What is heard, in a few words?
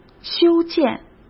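"修建", Mandarin Chinese; chinese flashcards; hsk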